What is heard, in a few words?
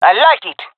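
agree, female; Counter Strike Model Pack; CS:GO; jungle fem epic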